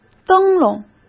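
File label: "灯笼", Mandarin Chinese; chinese flashcards; hsk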